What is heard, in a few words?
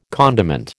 "condiment", American